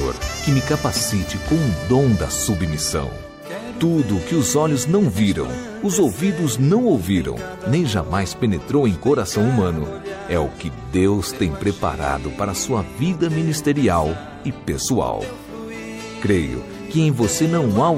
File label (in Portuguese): pastora masc, Aniversário de Pastora – Voz Masculina; Telemensagens para todas as ocasiões